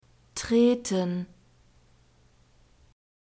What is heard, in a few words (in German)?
"treten", German; verbs present tense irregular